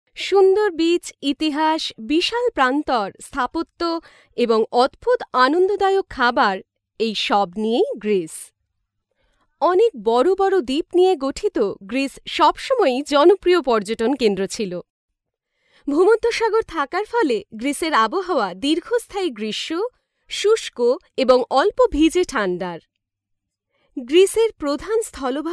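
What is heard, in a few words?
Bengali, Bangladesh, female; voice over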